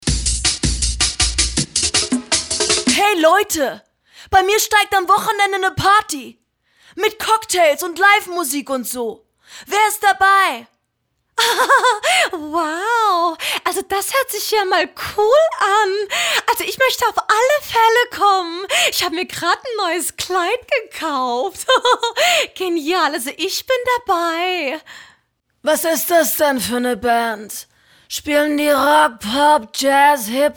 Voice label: female German character compilation

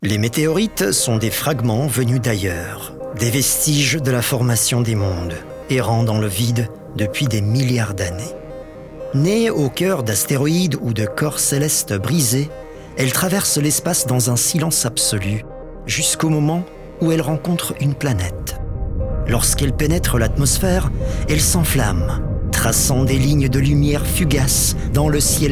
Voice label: male french documentary; Devine Voices

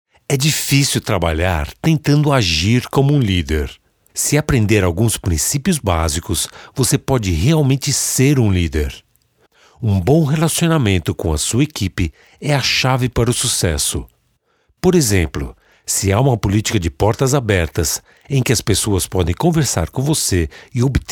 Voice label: male brazilian portuguese commercial

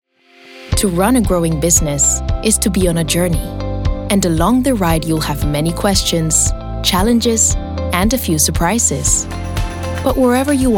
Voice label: female English with European accent; usa and spanish castilian

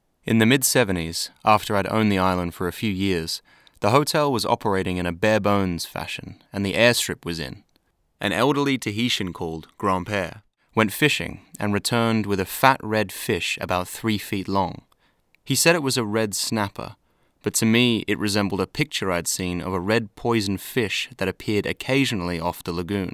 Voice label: male english australian biography narration

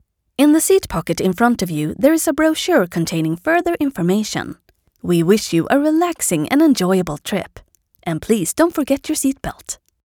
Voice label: female swedish english with swedish accent

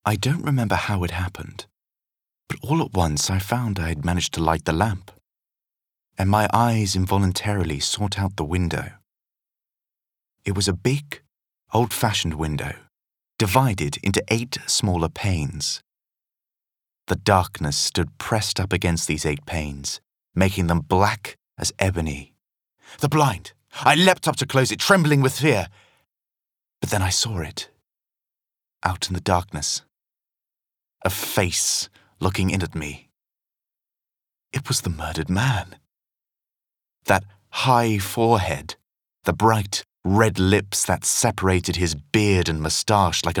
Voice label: male english narration; voices; devine voices

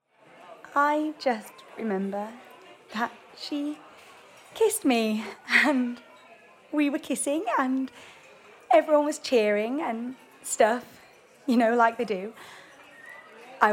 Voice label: female english english rp narration; motherland

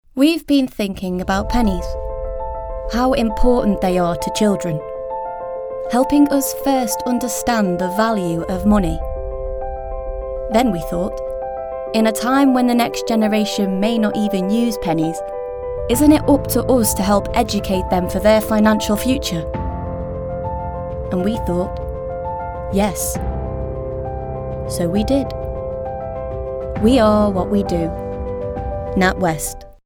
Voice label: female English northern accent commercial; english northern accents; natwest

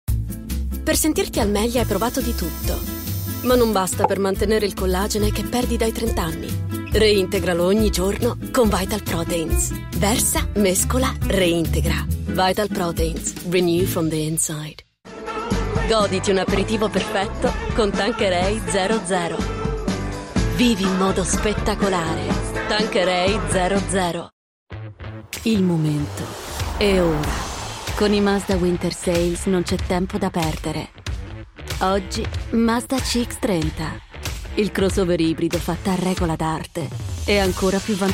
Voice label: female Italian commercial compilation

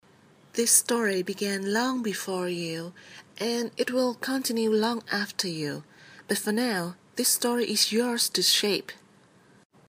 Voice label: female vietnamese english with vietnamese accent

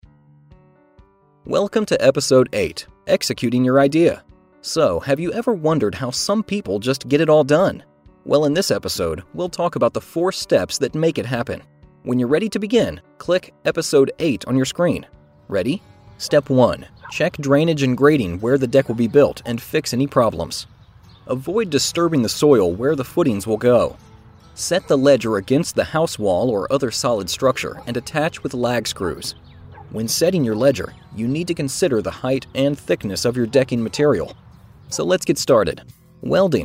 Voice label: male english usa e learning

